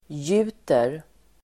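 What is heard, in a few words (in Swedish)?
"gjuter", Swedish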